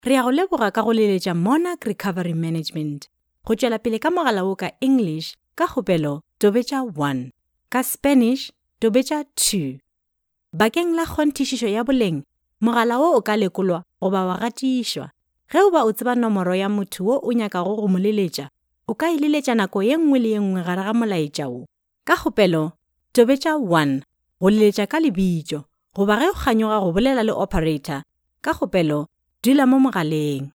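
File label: Sotho Northern, female; sotho northern voice over talent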